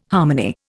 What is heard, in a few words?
"harmony", Indian